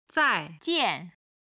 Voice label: "zai4 jian4", Chinese